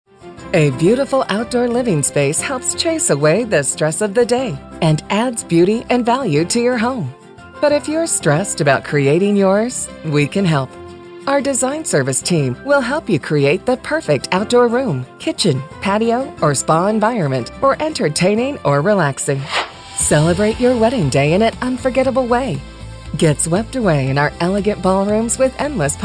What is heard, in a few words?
female, female voice artists